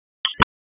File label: Raleigh Police Southwest District Tac, p25; wake